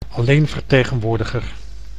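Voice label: "alleenvertegenwoordiger", Dutch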